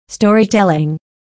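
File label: "storytelling", English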